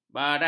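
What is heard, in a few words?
"barat", Malay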